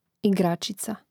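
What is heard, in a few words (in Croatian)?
"igracica", Hrvatski; mreznik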